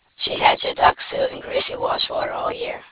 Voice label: whisperised